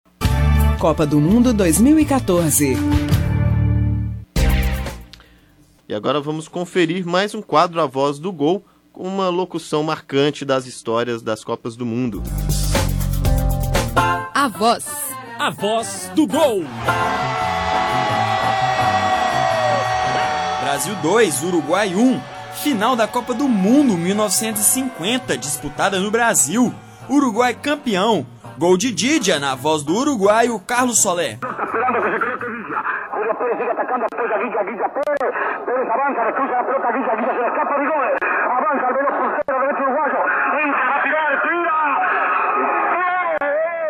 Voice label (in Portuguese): LOCUTOR ALEMAO NARRA GOL; A VOZ DO GOL; GOL DE GHIGGIA MARACANAZO NA COPA DE 1950